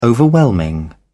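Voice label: "overwhelming", English, British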